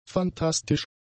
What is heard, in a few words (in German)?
"phantastisch", German; adjectives